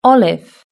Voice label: "olive", British English

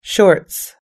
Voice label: "shorts", us-english

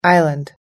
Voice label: "island", us english

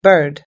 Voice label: "bird", us-english

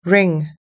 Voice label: "ring", us-english

